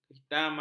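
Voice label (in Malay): "kehitaman", Malay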